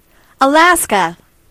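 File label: alaska, Spanish; gcompris-data; geography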